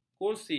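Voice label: "kursi", Malay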